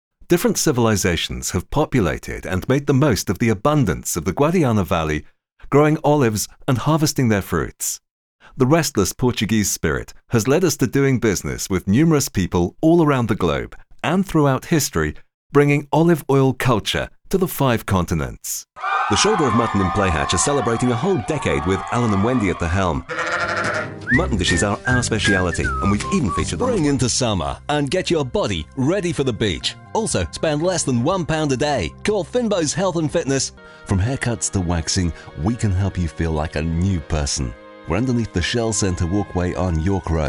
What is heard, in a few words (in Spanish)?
Ingles UK voz masculina grave